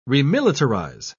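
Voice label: "remilitarize", British English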